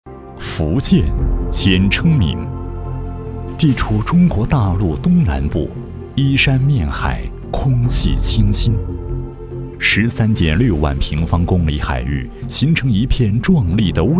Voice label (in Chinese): 男 专题片 纪录片; 海上福建 大型系列纪录片资料片